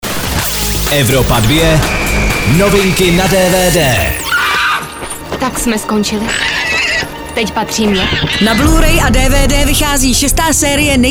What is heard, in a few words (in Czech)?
dvd news; hra o truny 6 serie